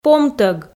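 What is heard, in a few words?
"помтӧг" Komi, female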